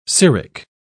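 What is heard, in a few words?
"ceric", British English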